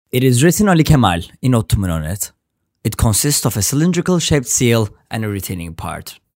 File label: english